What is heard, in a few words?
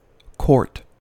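"quart", US English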